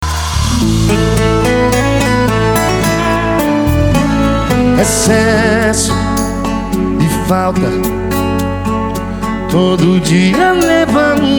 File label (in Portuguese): locutor a voz magnifica